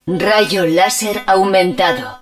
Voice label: hud beam laser augmented, Spanish